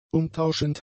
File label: "umtauschend", German; adjectives